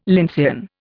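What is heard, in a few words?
"lyncean", Indian English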